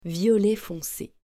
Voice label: "Violet fonce", French

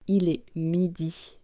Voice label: "midi", French

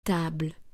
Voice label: "table" French

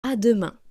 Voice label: "A demain", French

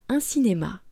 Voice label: "Un cinema", French

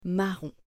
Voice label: "Marron", French

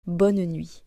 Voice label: "Bonne nuit", French

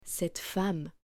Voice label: "Cette femme", French

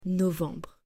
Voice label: "Novembre", French

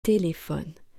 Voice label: "téléphone", French; singular plural

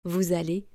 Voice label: "Vous allez", French

